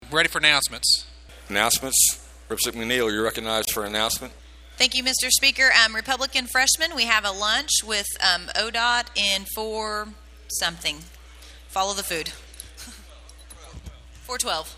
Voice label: Announcements - Recess